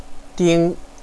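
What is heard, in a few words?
"ding", Mandarin Chinese, first tone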